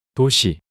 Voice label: "도시" 단어 남자

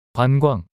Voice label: "관광" 단어 남자

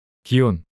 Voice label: "기온" 단어 남자

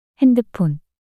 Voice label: "핸드폰" 단어 여자

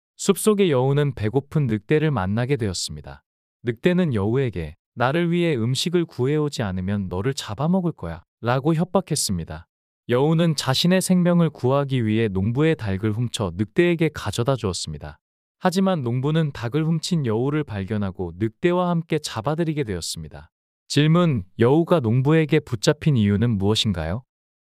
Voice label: 이야기 남자; 늑대와 여우

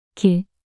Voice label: "길" 단어 여자

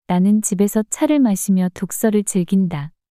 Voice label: 나는 집에서 차를 마시며 독서를 즐긴다 문장 여자

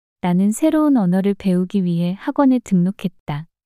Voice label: 나는 새로운 언어를 배우기 위해 학원에 등록했다 문장 여자

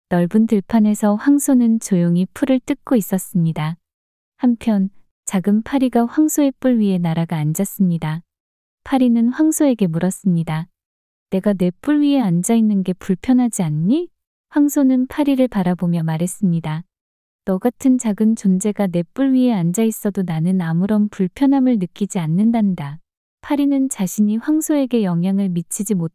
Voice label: 이야기 여자; 황소와 피리

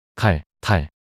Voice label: "칼탈" 낱소리 남자

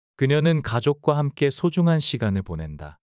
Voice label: 문장 남자; 그녀는 가족과 함께 소중한 시간을 보낸다

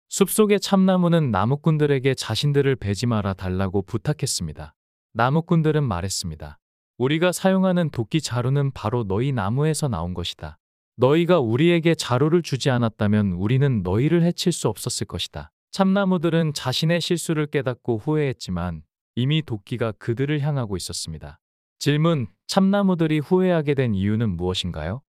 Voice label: 이야기 남자; 참나무와 나무꾼들

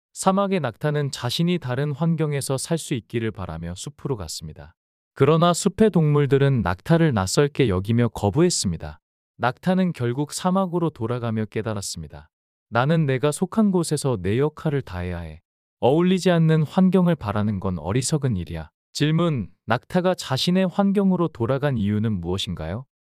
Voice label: 이야기 남자; 낙타

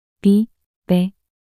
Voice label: 미메 낱소리 여자

